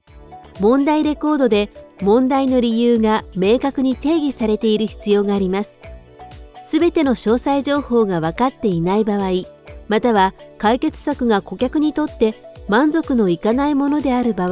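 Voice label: Japanese female E-learning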